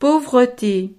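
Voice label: "pauvrete", French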